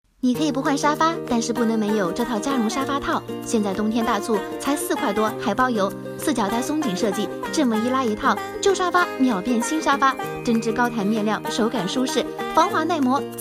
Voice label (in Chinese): 亲切女声